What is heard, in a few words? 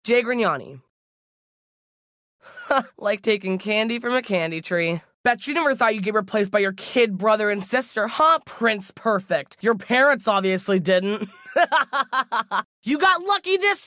animation demo